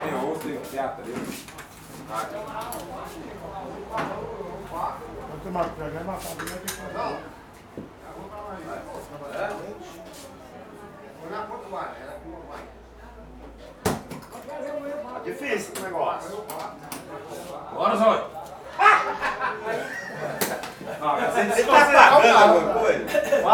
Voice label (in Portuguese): Homens conversando alto e jogando sinuca no bar em goias; multidao; Colecao Sonora do Cerrado